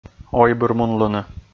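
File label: "ойбырмунлыны", Komi, male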